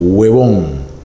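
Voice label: "HUEVÓN", Spanish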